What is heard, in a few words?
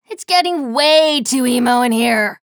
chatter negative; slash; respawn